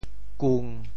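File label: "kung1", Teochew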